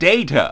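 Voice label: announcer, Japanese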